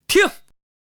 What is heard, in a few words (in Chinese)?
"ting", man, mahjong shaanxi